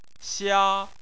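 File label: "xia", Chinese, first tone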